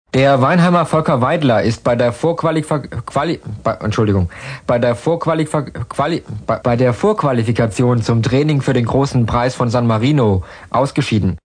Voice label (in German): radio sportmeldung; aeusserungen versprecher